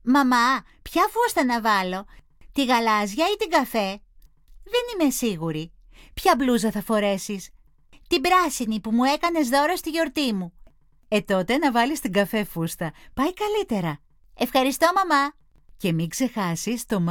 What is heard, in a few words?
dialog